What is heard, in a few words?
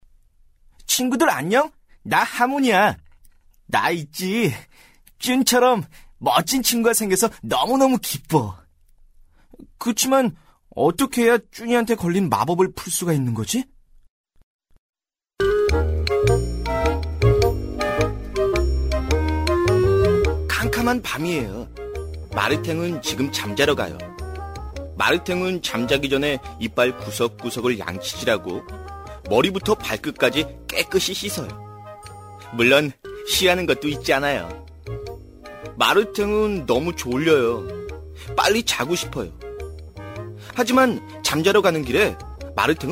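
Korean, male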